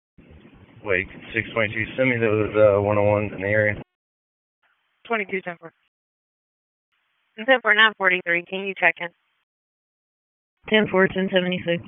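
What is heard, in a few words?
Sheriff's Office Dispatch; WSO DISP 1; wake; p25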